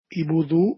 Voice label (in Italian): "el budu", Dialetto di Albosaggia; Bombo